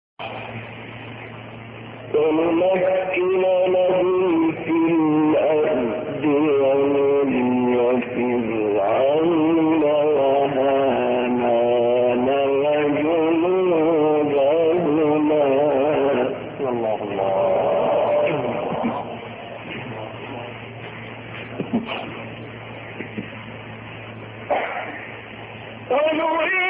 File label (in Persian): تلاوت مجلسی سوره مبارکه قصص; مرحوم استاد علی اربابی